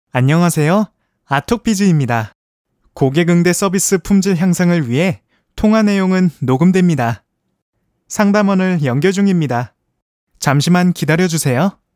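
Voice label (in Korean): 남성 (Male) 광고 나레이션 ARS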